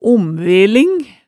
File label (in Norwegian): "omveling", Hemsedal